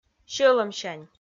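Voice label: "сьӧлӧмсянь", Komi, female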